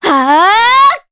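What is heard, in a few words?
Vox Casting, Japanese; kingsraid; voices heroes jp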